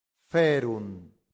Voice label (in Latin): "ferunt", Latin; santillana